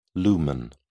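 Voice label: "lumen", British English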